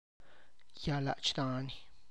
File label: "wild pepper tree", tlacochahuaya